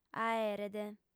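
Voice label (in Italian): "aeret", sarda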